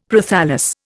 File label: "Prothallus", Indian; Dictionary